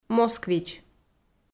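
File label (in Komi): "москвич", Komi, female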